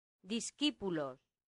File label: "discipulos", latin; santillana